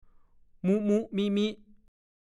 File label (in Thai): "มุ้ ๆ มิ้ ๆ", ภาษาโคราช; ตามประสา